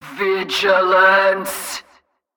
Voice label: Female Patrol Line; NPC; Heist Cultist Captain Patrol